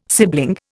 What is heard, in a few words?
"sibling", Indian